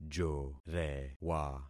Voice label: "jorewa", concat syllables; Affixing experiment